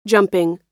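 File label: "jumping", American English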